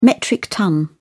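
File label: "metric ton", English, British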